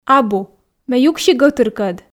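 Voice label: "абу ме юкси гӧтыркӧд", Komi, female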